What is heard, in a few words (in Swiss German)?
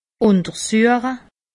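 "untersuche", Alsatian; medecine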